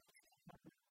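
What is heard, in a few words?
"лючки-ладнӧ" Komi, female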